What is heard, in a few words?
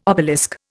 "obelisk", Indian